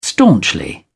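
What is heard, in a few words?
"staunchly", British English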